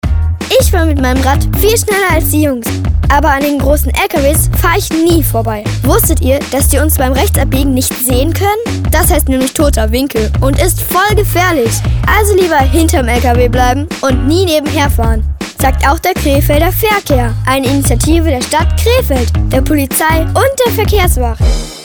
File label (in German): radiospot